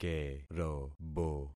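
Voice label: "kerobo", concatenated syllables; Affixing experiment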